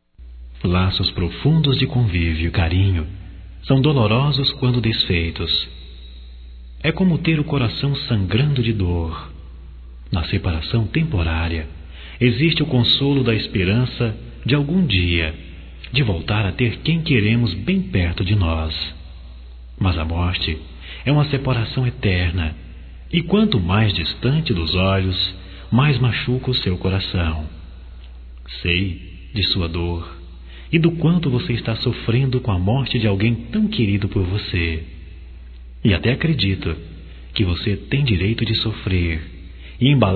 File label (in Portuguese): Pêsames Geral Masculino